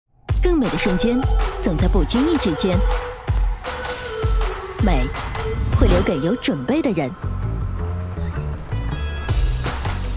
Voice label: 女, 广告 手机; Vivo官方宣传广告; Nex3; 5G手机

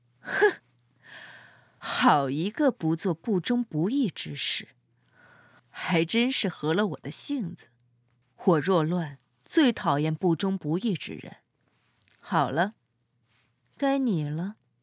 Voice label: A女 角色 御姐

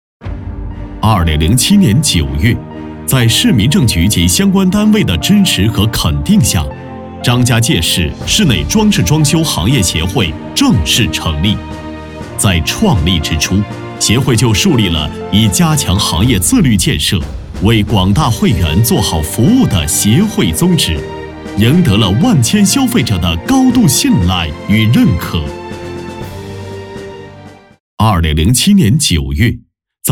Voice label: 男 宣传片 室内装饰装修行业协会

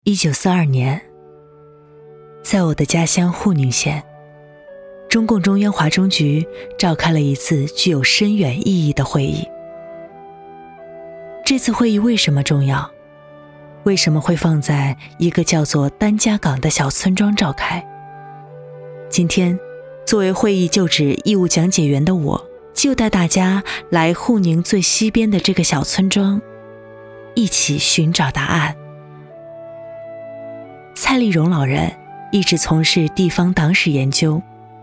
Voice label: 女 走心旁白 笑; 村庄

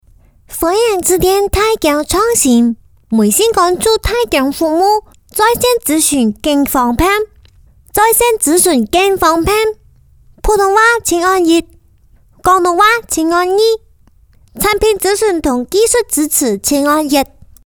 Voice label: 女 客家话 童

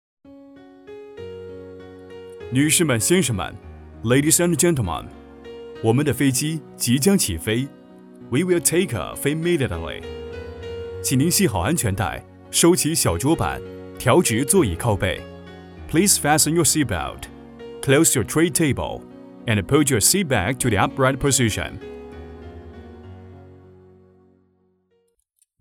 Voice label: 男 英语 中英播报 航空客舱播报

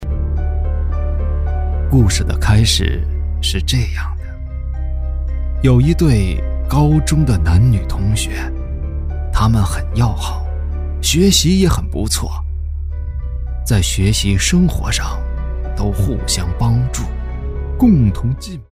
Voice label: 鬼故事, 男; 死也不让你死在北京

